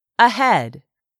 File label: "ahead", English; 3000 words